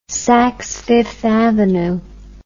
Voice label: "saks fifth avenue", English

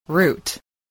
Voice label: "root", English spelling

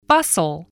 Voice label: "bustle", spelling

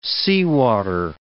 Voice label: "seawater", English

